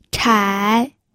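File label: "chai", Mandarin Chinese, third tone; pinyin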